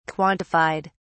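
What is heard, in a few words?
"quantified", English, British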